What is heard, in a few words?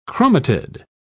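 "chromatid", British English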